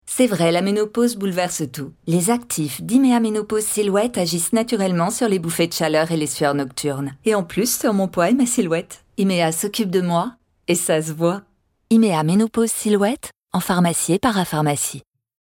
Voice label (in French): pub naturelle complice; YMEA